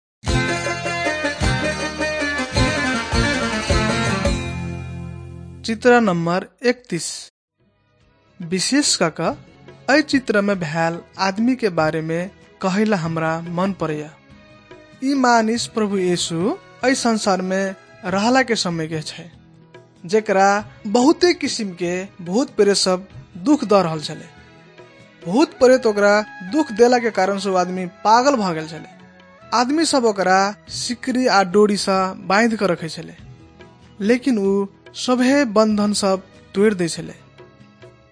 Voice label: maithili thetiya